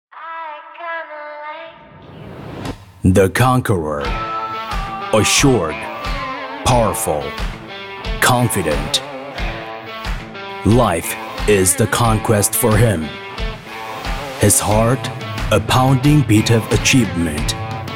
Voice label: Commercial

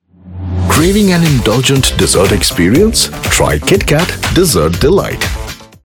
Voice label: Commercial

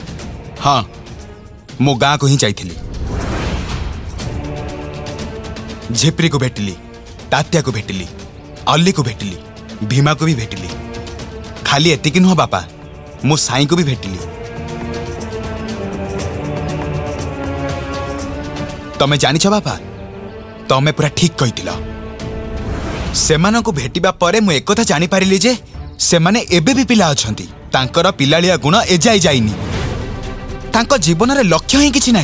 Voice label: MALE DRAMA

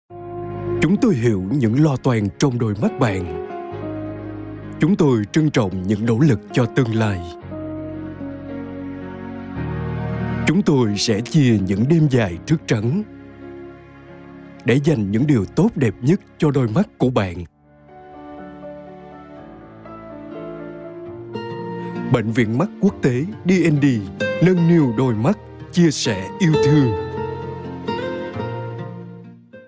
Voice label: Commercial

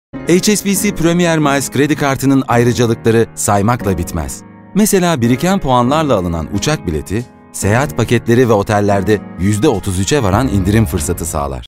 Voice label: Commercial

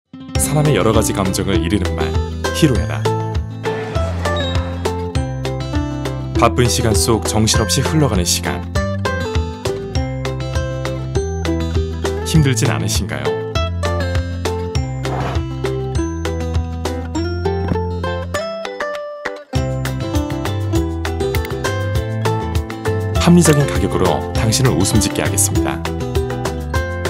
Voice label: Commercial

